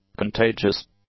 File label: "contagious", English; adjectives